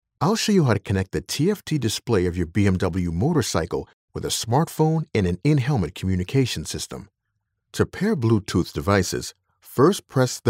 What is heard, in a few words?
Voiceover, Professional Voice Actor for Commercials; BMW Motorrad Connectivity; non-union